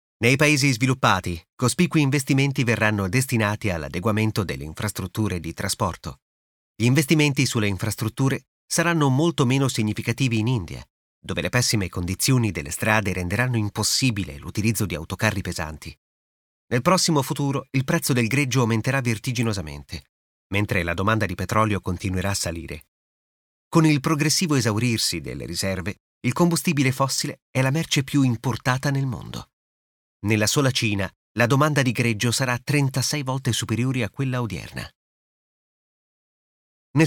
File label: Demo Corporate